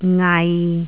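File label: "ngai6", Cantonese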